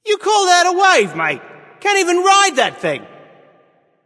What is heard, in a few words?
taunt directed; smite; hebo; poseidon